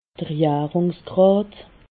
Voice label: "jarungsgrad", alsace

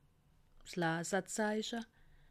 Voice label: "laserzeiche", alsace

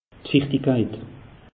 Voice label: "fichtichkeit", alsace; meteo

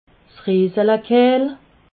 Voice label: "reeselekehl", alsace

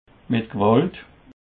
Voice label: "met gewalt", Alsace

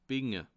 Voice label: "binde", alsace

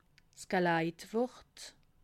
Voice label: "geleitwort", alsace

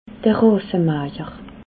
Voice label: "rasemajer", alsace

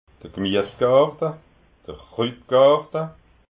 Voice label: "gmiesgarta", alsace

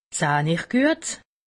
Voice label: "sahn", alsace; medecine

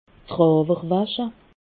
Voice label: "drawerwasche", alsace

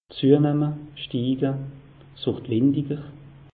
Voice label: "windiger", alsace; meteo

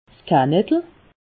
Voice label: "kanettel", alsace

